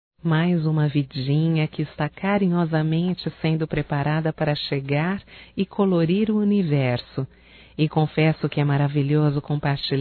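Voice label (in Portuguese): PARABENS PELA GRAVIDES, Feminino; Aniversarios; Telemensagens; Telemensagens 2017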